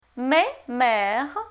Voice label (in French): mais — mère, francais Phonetique Voyelles; Lingvo